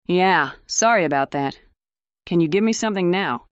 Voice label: fem